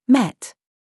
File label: DRESS TRAP, minimal pairs